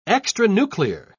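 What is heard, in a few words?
"extranuclear", British English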